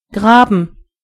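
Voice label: dig, German voices words; gcompris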